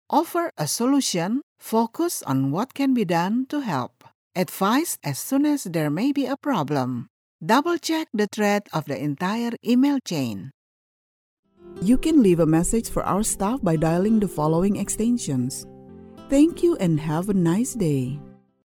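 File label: Indonesian female voice over, English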